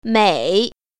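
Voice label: "mei3", Chinese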